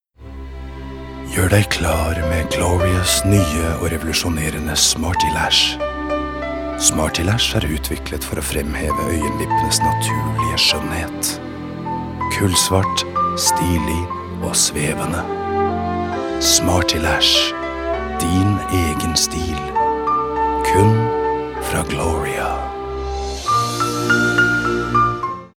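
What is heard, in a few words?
Norwegian commercial soft sell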